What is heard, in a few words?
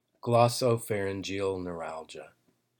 Voiceover